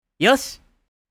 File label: "All right" anime boy character